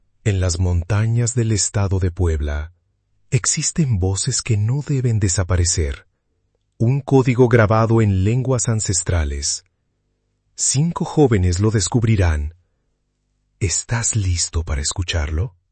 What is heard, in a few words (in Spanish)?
narracion teaser espanol; Codigo Ancestral